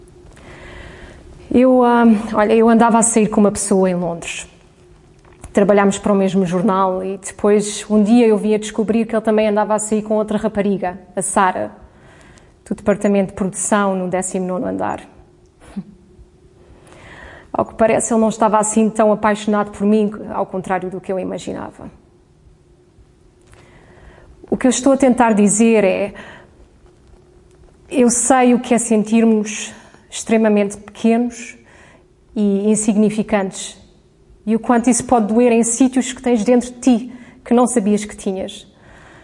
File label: audio PT